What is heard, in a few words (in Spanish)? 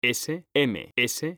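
"SMS" Spanish, male; mekanta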